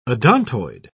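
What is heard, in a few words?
"odontoid", English, British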